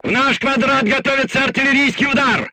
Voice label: voice message art shooting warning, crew tanks russian